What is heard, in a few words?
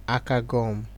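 "aka gum", Nigerian Pidgin, male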